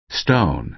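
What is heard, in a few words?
"stone", American English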